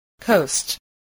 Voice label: "coast", voice